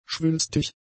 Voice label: "schwülstig", German; adjectives